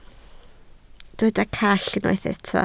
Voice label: "call", Welsh